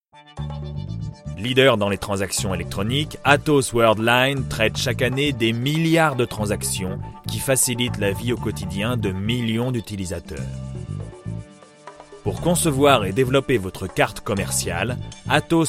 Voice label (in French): instit grave autorite; voix off masculine; voix off masculine naturelle, posée, souriante